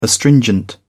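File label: "astringent", British English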